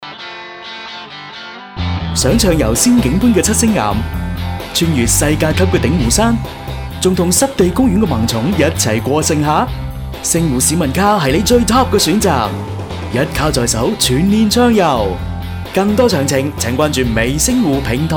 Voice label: 男 广告; 微星湖

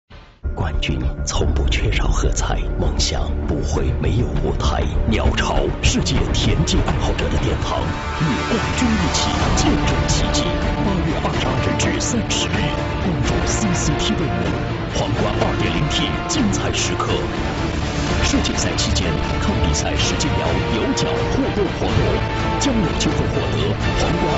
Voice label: 男 节目包装 致敬世锦赛, 国语男声配音

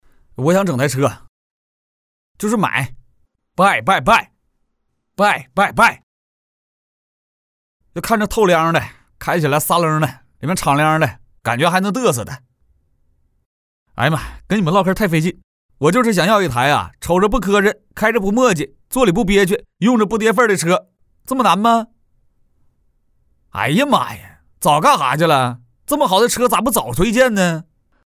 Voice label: 男 方言 东北话买车 幽默风格

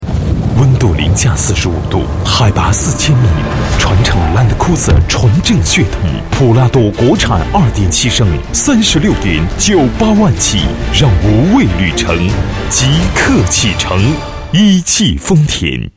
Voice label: 男 广告 品质大气

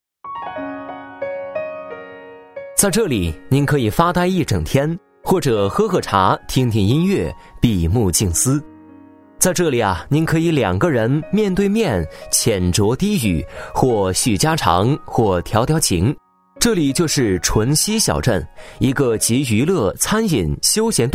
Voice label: 男 专题 旅游景点介绍, 国语男声配音 年轻广告

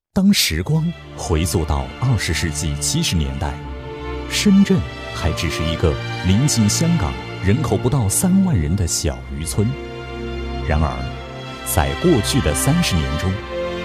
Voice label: 男声 浑厚磁性, 城市宣传片; 深圳前海

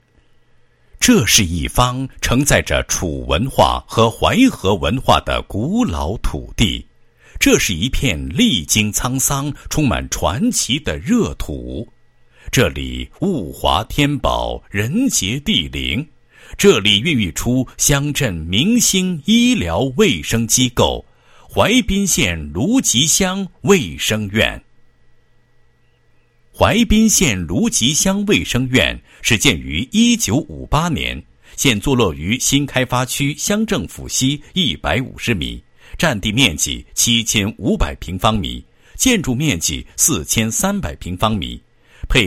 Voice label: 男 解说; 淮滨县芦集乡中心卫生院

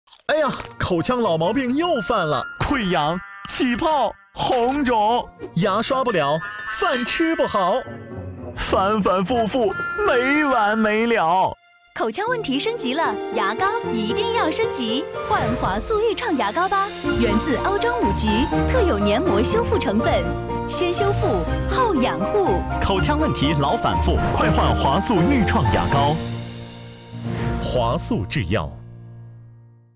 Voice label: 女 电视广告 牙膏; 华素愈创牙膏

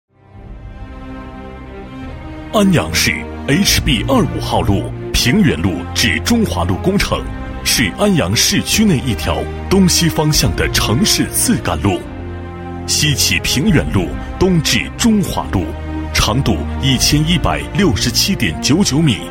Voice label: 男 工程解说 申报资料解说词; 蚌埠闸

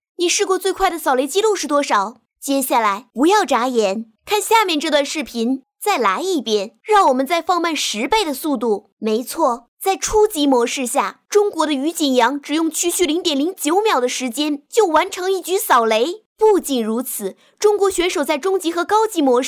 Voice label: 女,解说,电竞游戏自媒体解说

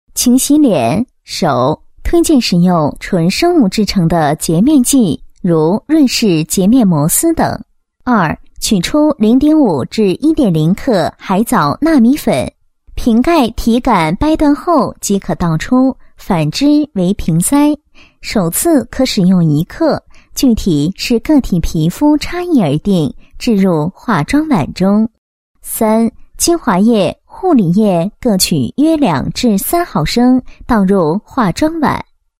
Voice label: 女 产品; 介绍纯生物制成的洁面剂

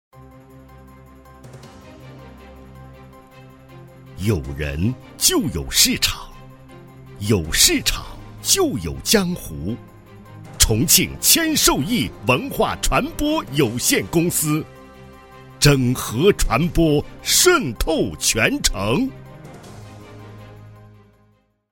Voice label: 广告 文化传播 激情大气, 国语男声配音; 谦受益文化传播

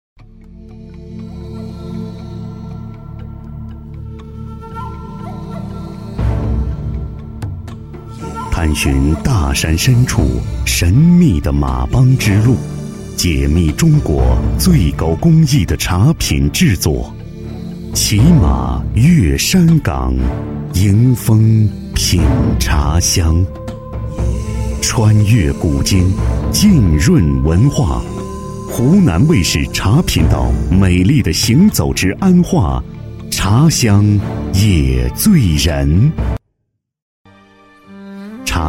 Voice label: 男 记录片, 国语男声配音, 浑厚; 湖南卫视茶

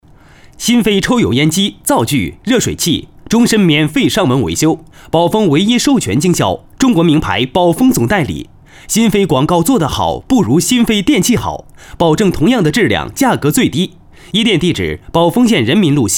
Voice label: 男 电器 干声, 解说; 新飞电器

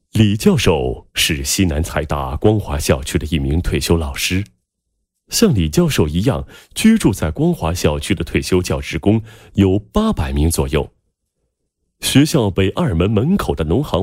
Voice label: 男, 银行服务; 农行西南财大分理处转型为轻型支行

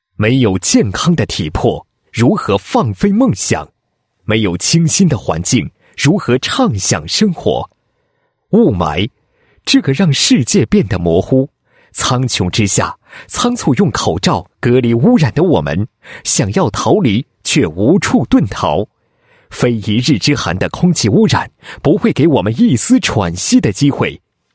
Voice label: 男 产品介绍; 全屋有氧新风系统介绍; 复荣

